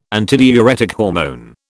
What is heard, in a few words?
"antidiuretic hormone", British